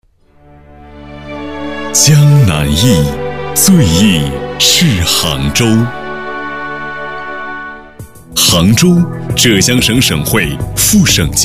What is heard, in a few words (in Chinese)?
男国 宣传片 招商 舒缓; 杭州招商